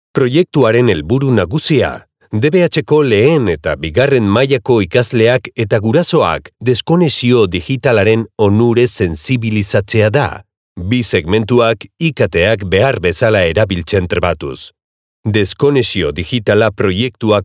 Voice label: basque narration; professional voice actor from Spain

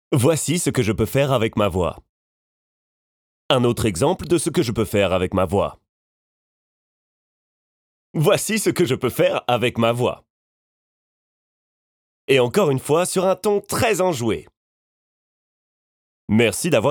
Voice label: french animation

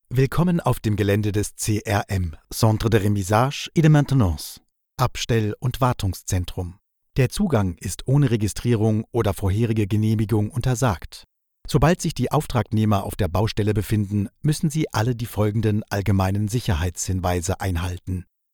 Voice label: german e-learning

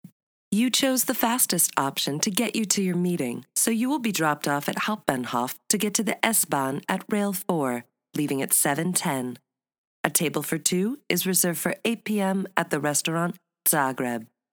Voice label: english american ivr

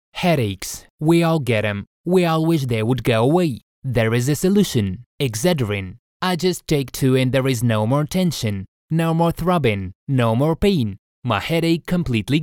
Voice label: english promos

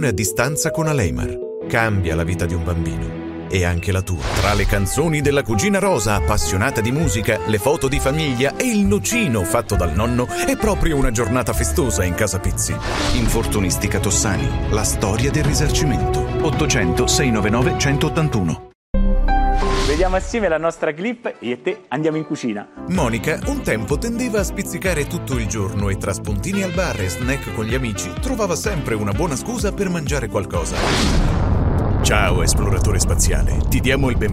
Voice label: italian commercial

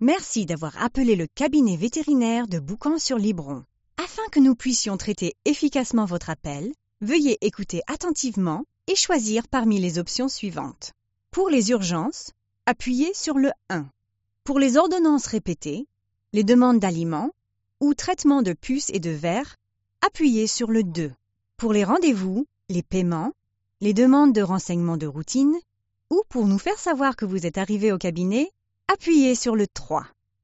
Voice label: french ivr; voice actors

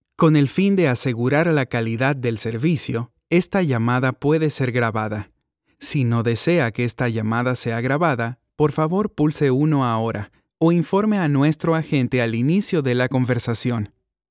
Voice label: spanish latin american ivr

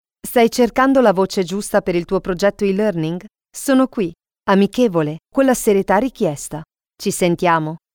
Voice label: italian e-learning

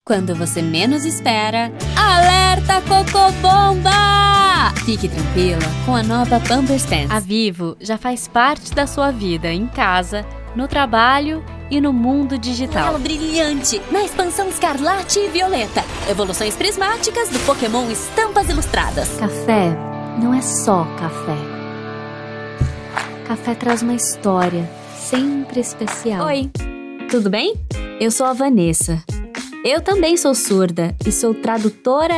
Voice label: portuguese brazilian commercial

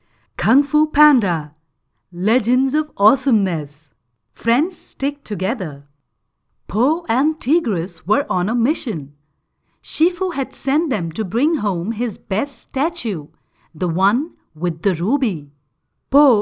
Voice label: english audiobooks

